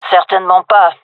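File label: disagree, female; vo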